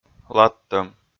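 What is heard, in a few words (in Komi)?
"ладтӧм", Komi, male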